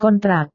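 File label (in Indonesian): "kontrak", Indonesian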